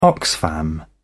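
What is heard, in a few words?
"oxfam", British English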